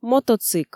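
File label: "мотоцик", Komi, female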